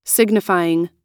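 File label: "signifying", American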